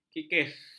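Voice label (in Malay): "kikis", Malay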